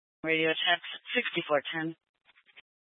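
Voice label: Sheriff Dispatch, Scanner audio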